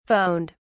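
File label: "phoned", British English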